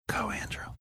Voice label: whispering